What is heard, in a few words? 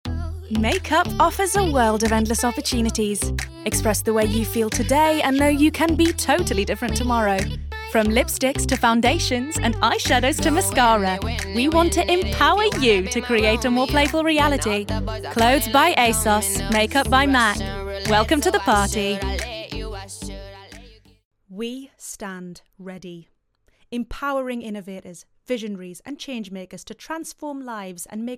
English